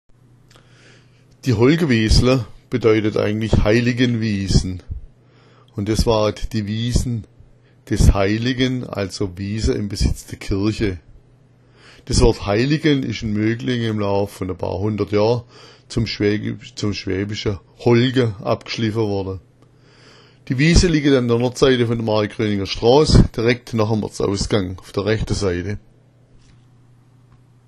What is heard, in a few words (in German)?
holgawiesla, schwaebisch; Die Holgawiesla heißen eigentlich Heiligenwiesen, was die Wiesen des Heiligen, also Wiesen im Besitz der Kirche, bedeutete.